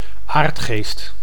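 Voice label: "aardgeest", Dutch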